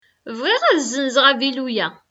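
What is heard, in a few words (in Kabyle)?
Bɣiɣ ad zzenzeɣ aγilu-a., Kabyle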